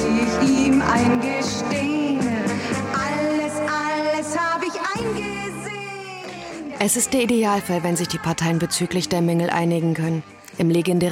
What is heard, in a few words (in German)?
Radio Feature Reklamation; DLRKultur